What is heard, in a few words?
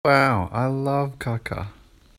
voice message